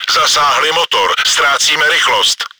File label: engine damaged, cz; Zasáhli motor, ztrácíme rychlost.; World of Tanks; Czech